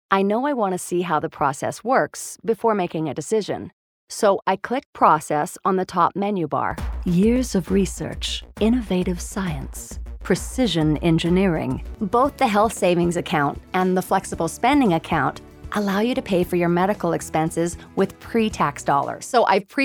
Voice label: 外籍女英 外语 美式英语 时尚

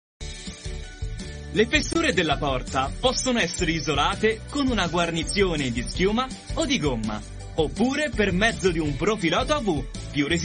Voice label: 意大利语男 外语 小语种 年轻

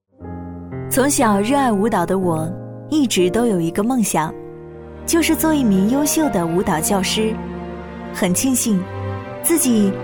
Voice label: 女 其他 旁白 梦想

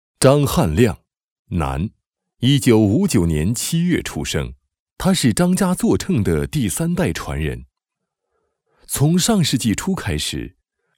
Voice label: 男国 专题 人物 先进人物

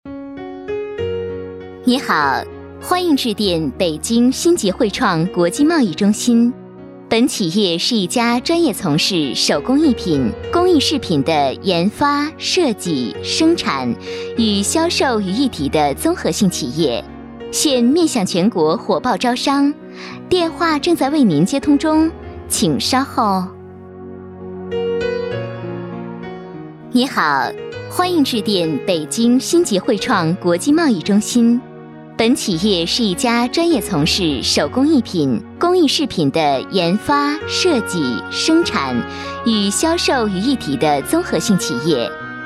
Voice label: 彩铃 国际贸易中心 甜美

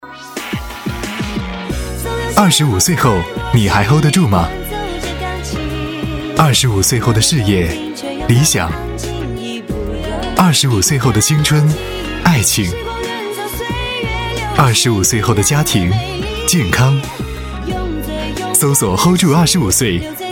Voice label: 广告 年轻; 化妆品; 玉兰油

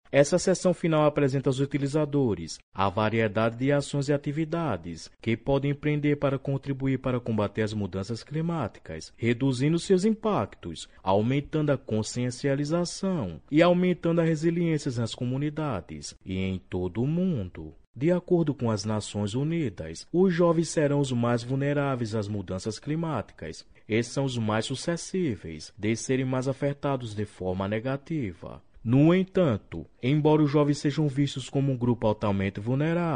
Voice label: 葡萄牙语男 外语; 小语种

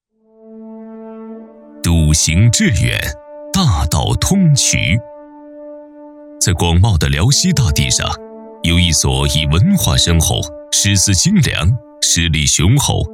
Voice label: 男国 专题 学校; 阜新市高级中学

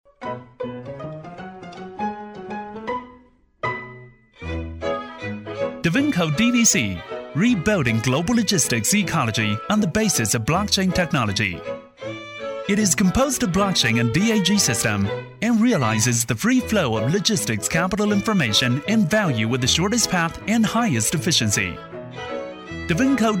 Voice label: 男英 宣传片; 英语; 病毒; 飞碟说

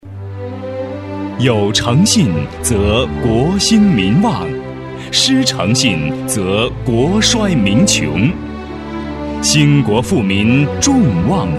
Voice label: 广告 公益 诚信 大气, 男

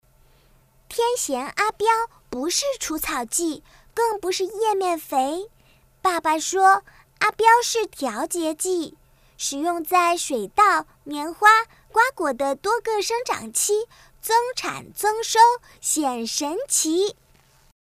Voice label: 动画 童声 女童

